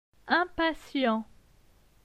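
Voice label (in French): "Impatient", French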